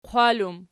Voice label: "qwal", Archi